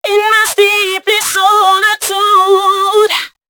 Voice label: female d120bpm, voice; FX; Mutekki Media; Dance Ultra Voice VOL-1